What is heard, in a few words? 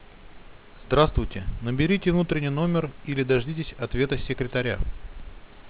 announcement